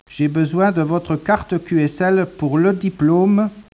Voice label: speech, French